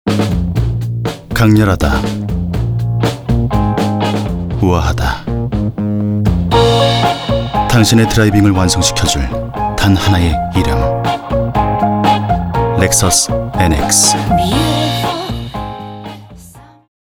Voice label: 라디오 도시적인; 렉서스

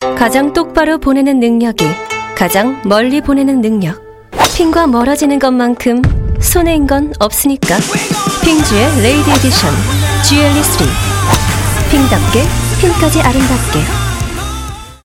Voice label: 광고 샘플링 시크한 고급스러운

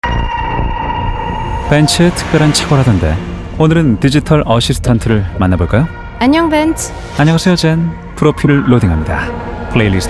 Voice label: 광고 고급스러운 느낌; 벤츠

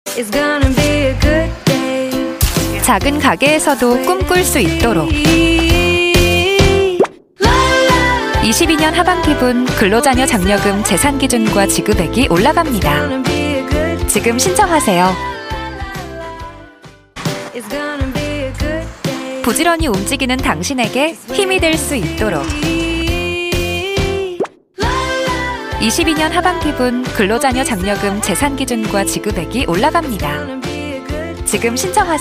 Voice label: 밝고 일반인스러운; 국세청

